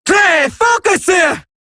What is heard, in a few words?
Cover Me Eximus Grineer Female